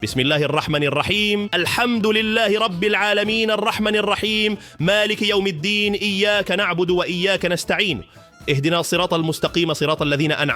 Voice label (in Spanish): Rezando en Árabe; IlloJuan